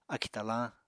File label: "akì talañ", Apatani